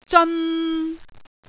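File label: "zeon3", Cantonese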